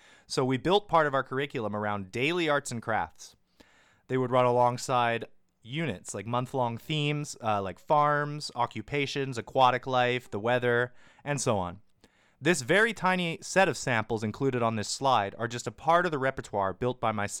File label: Voice Commentary Bears; community field experience 2020; Non-Instructional Material